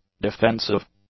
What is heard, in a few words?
"defensive", english; adjectives